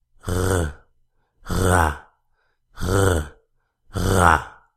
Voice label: Voiced Uvular Fricative

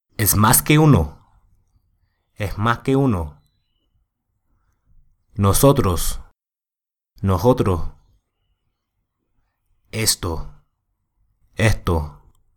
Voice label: Dialectal S drop

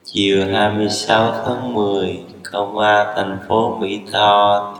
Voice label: vietnamese slow; Ho Chi Minh City, Vietnam

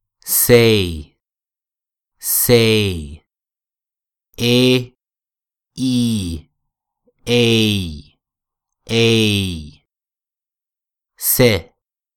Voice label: E diphthong example

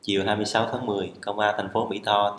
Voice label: vietnamese phrase

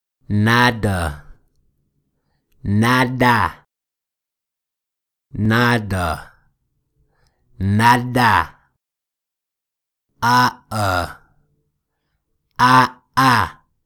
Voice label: English Vowel Reduction Example

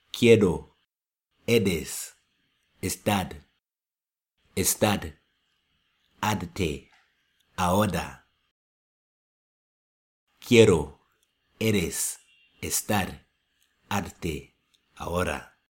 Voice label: spanish r d words

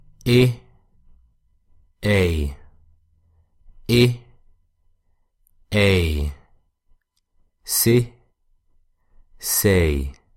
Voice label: French e vs English ei

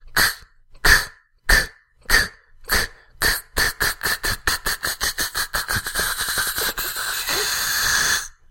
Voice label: Uvular K sound; Uvular Bootcamp

